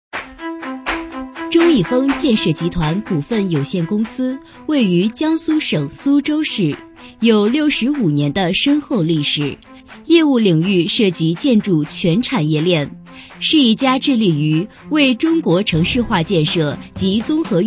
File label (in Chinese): 女 专题 企业宣传片